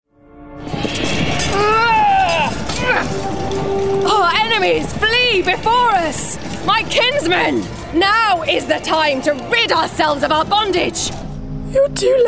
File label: Gaming Character Reel